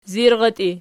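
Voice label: "zirratii" nominative, Archi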